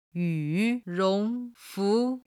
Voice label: "yu rong fu", Chinese